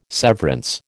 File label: "severance", American